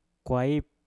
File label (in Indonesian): "kwaip" telinga, Mpur